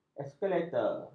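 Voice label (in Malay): "eskalator", Malay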